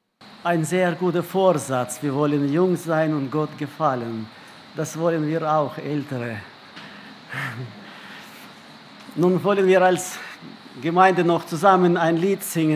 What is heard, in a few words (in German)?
Ansage; Die Ernte der Erde ist duerr geworden